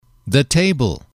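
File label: "the table", English, male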